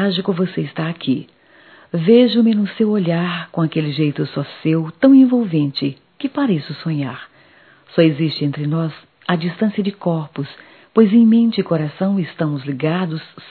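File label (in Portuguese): Natal distante neutro, feminino; Telemensagens 2017; Natal & Ano Novo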